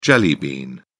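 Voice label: "jelly bean", British English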